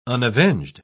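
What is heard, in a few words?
"unavenged", British English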